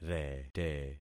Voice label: "rete", concat syllables; Affixing experiment

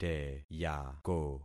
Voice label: "teyako", concat syllables; Affixing experiment

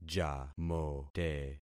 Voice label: "jamote", concat syllables; Affixing experiment

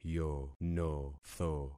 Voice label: "yonotho", concat syllables; Affixing experiment

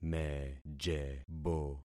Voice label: "mejebo", concat syllables; Affixing experiment

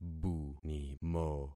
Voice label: "bunimo", concat syllables; Affixing experiment

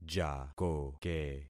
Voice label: "jakoke", concat syllables; Affixing experiment

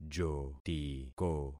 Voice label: "jotiko", concat syllables; Affixing experiment

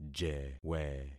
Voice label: "jewe", concat syllables; Affixing experiment

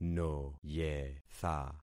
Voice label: "noyetha", concat syllables; Affixing experiment

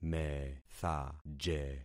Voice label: "methaje", concat syllables; Affixing experiment

